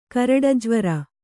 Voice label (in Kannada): "ಕರಡಜ್ವರ", Kannada